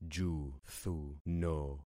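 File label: "juthuno", concatenated syllables; Affixing experiment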